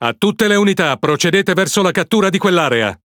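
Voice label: Italian speech Quick Commands Enemy Point; World Of Warships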